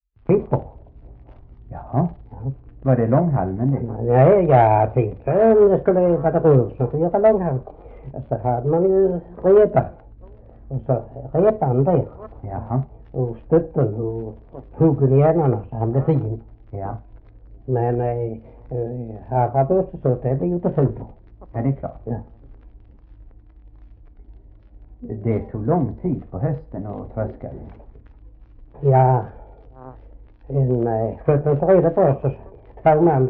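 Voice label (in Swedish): Oderljunga Skåne, Svenska sagor dialekter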